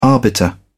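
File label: "arbiter", British English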